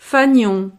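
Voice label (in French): "fanion", French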